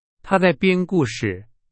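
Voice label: Chinese; hsk4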